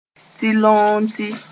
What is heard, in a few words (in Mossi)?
"tiloonti", moore; grey Horn-bill